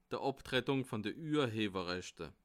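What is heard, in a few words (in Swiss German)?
"abtrettung", Bas Rhin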